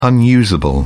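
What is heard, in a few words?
"unusable", English, British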